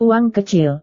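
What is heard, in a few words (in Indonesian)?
"uang kecil", Indonesian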